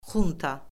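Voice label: "xunta", Azerbaijani; Orfoqrafiya Lüğəti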